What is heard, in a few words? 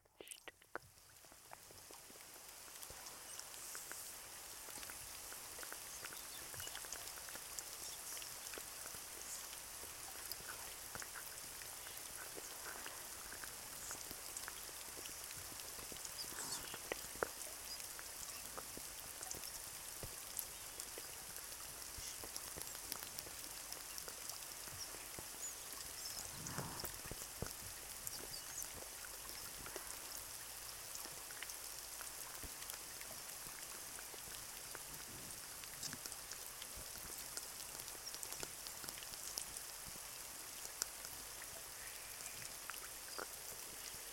rain & whispers